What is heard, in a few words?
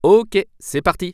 Start Drive, french; waze